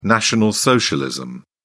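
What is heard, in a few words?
"national socialism", British English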